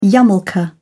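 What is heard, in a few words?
"yarmulke", British English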